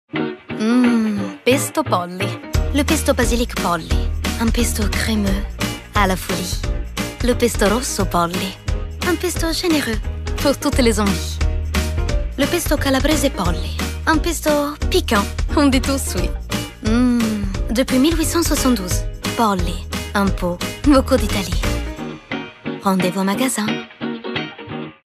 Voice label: Pub Pesto French

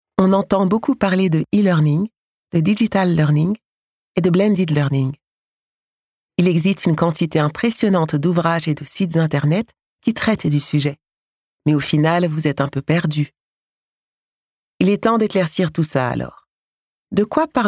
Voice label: ELEARNING

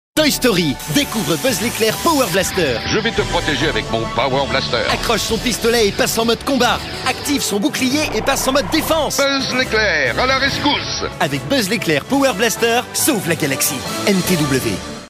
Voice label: TVC Toys French; TOY STORY Power Blaster Buzz

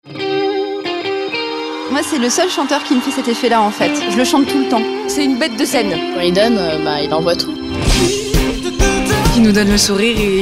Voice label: Spot

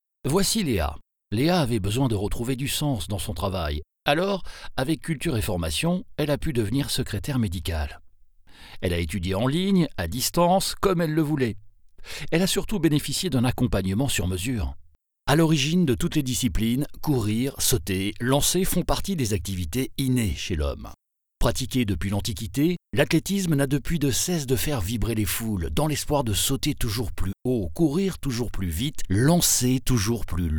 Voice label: French Voice

